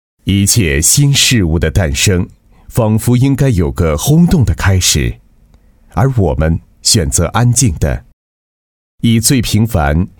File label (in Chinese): 男 专题 叙述感觉, 国语男声配音; 意尔康